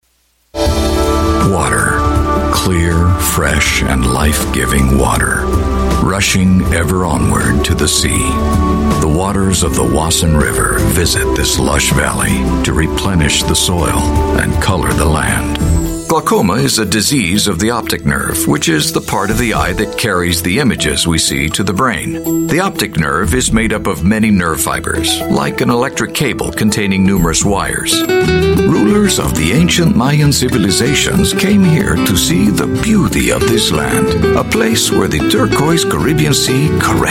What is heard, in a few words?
US English Narration, Male